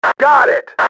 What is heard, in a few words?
got it, radio male; XBOX